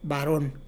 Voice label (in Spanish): "varon", mx